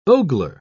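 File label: "ogler", British English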